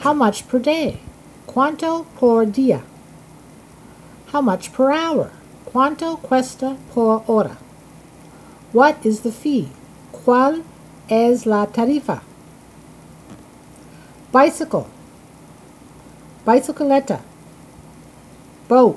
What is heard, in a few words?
Spanish travel phrases